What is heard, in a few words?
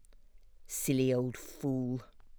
monotone